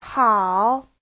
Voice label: "hao3", chinese